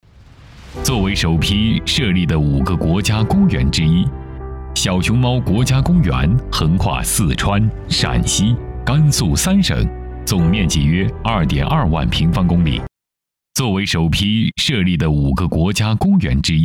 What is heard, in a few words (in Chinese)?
男 专题; 熊猫